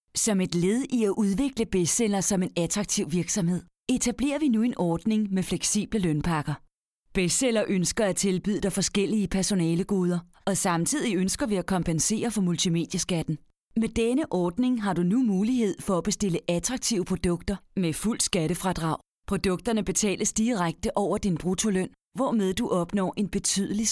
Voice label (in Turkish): Danca Kadin; seslendirme